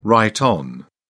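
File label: "right on", British English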